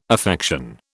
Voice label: "affection", British